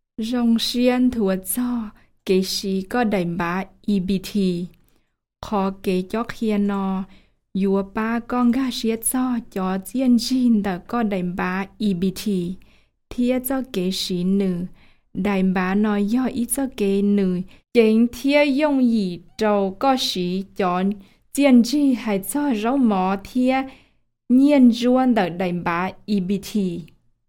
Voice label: Hmong

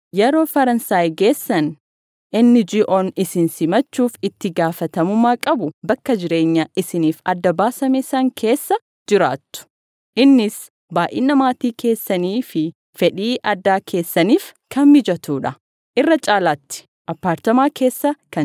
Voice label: Oromo

